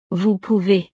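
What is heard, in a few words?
"vous pouvez", French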